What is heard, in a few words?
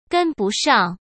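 "跟不上", Mandarin Chinese; hsk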